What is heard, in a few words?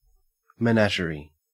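"menagerie", Australian English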